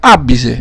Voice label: "abbise", dialetto; àbbise matita

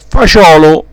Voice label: "faciolo", dialetto; fagiolo; etto fagiolino

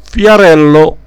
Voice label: "fiarello", dialetto; fiarello bambino

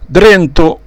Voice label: "drento", dialetto; dentro

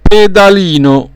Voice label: "pedalino", dialetto; pedalino calzino

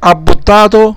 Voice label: "abbottato", dialetto; abbottàto pieno, sazio

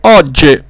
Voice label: "ogge", dialetto; ogge oggi

